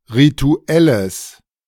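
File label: "rituelles", German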